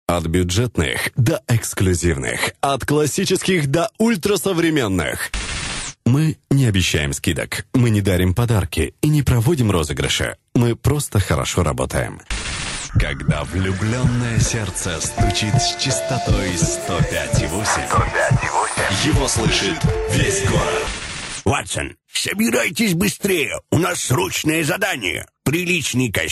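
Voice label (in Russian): advert, Мужчина, Среднего возраста, Русский, быстрый, Звонкий, Низкий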